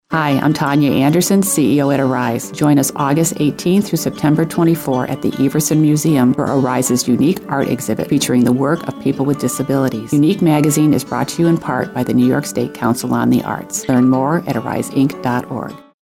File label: Radio Spot; ARISE Unique